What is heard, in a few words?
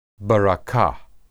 "berakah", Hebrew